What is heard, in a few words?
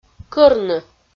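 "кӧрны" Komi, female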